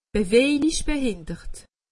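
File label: "bewejlich", Alsatian; medecine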